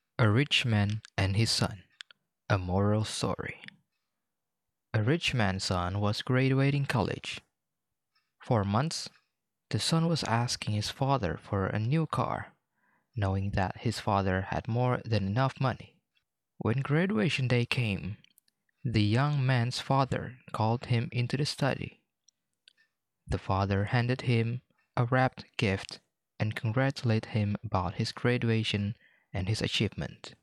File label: English (Asian), Male